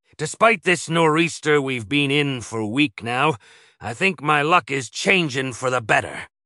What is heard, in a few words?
happy; schooner; fertig classic games